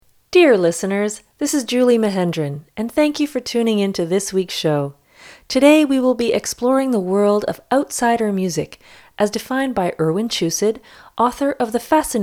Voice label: narration